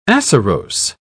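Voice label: "acerose", British English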